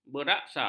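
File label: "beraksa", Malay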